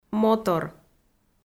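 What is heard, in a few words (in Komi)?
"мотор", Komi, female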